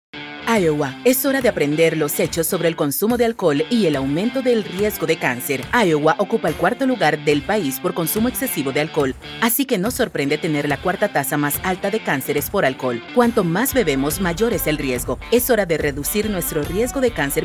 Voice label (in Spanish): Female, Radio Spot, Spanish; Cancer & Alcohol - Straight Facts